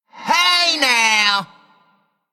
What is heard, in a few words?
Male Undead Attack